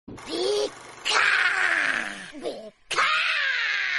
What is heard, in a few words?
Roar, Notification; Pikachu